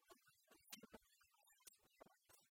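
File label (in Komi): мый вылӧ кыйсьӧмны вӧралысьяс арнас, Komi, female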